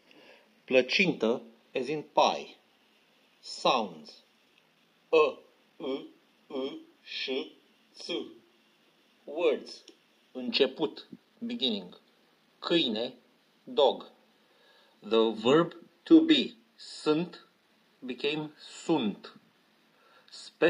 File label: Interview